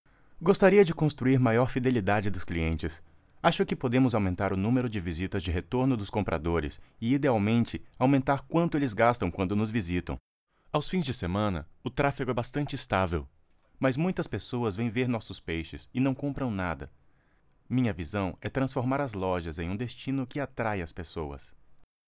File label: brazilian m narration, portuguese bra voice; voice demos